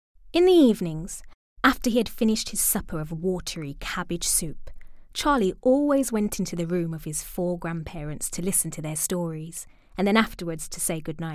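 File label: British English, Audiobook; GoLocalise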